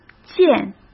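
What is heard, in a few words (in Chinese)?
"件", Mandarin Chinese; hsk; chinese-flashcards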